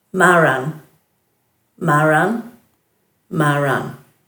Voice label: "maaran", Dhurga

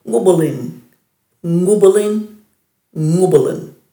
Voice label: "ngubulinj", Dhurga

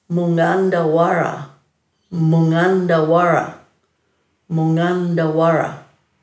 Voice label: "mungandhawara", Dhurga

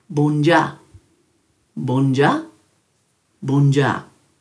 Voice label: "bundja-", Dhurga

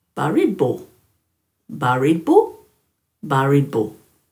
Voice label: "baridbu-", Dhurga

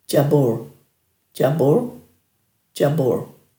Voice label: "djabur", Dhurga

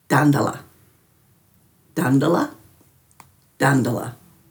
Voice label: "dandala", Dhurga

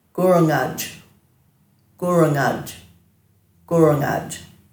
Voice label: "gurungadj", Dhurga

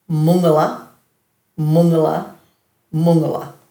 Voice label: "mungala", Dhurga

